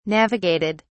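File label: "navigated", British English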